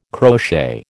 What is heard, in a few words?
"crochet", American